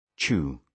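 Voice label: "chew", British English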